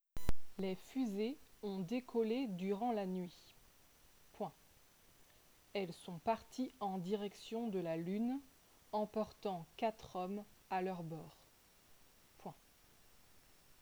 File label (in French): dictee